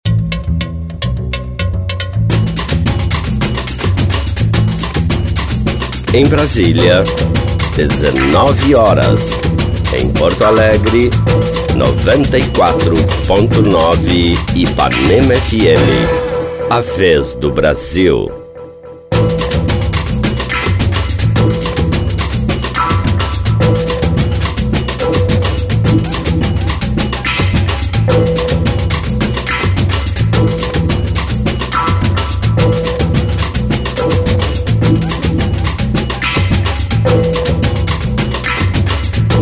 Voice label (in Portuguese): spot; vinhetas 2005; IpanemaFM